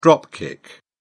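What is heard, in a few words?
"drop kick", British English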